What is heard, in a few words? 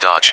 "dodge", EN